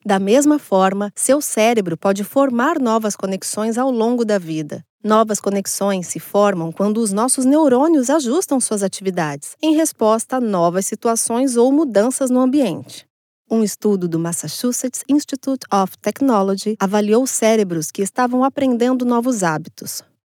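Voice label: Brazilian Portuguese elearning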